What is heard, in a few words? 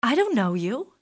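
"don't know", english female; XBOX; civ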